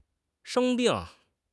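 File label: "sheng1 bing4", Mandarin Chinese; HSK2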